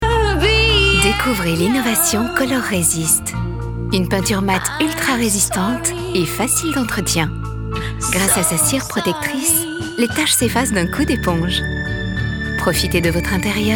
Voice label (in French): F FR PUB TV; dulux valentine color resist